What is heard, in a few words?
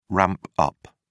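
"ramp up", British English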